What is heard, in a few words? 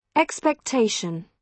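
"expectation", British English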